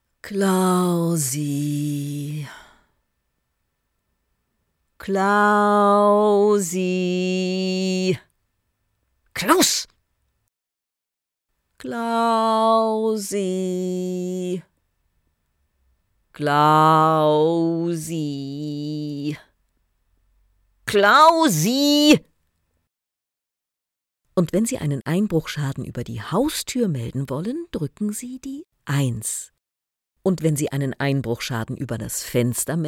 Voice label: radio